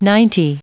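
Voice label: "ninety", US English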